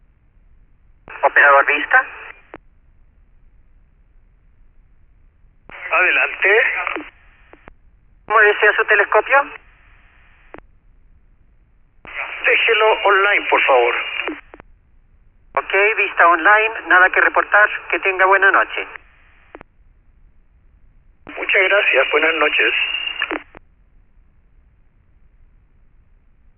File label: paranal radio vista handover; soundscape